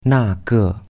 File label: "那个", Chinese; that one